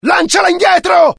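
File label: fear grenade, IT; mohaa; dialogue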